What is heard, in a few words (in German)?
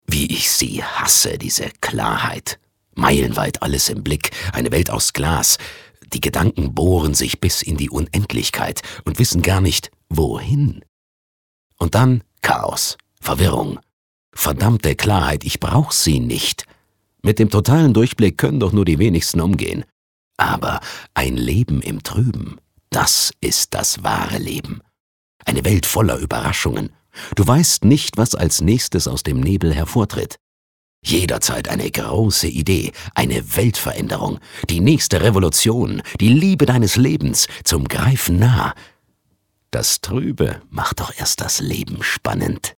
Schauspiel, Lebendig